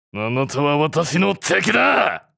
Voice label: "You are my enemy", anime villain character, japanese voice over